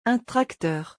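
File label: "Un tracteur", French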